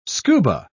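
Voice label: "scuba", English, British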